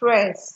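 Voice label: "press", Nigerian Pidgin, female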